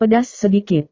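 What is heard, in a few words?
"pedas sedikit", Indonesian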